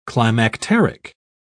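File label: "climacteric", British English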